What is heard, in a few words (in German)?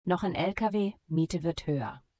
second truck rented easy, voice de; logistik